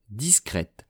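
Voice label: "discretes", French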